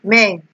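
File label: "men", Nigerian Pidgin, female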